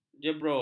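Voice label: "jebrol", Malay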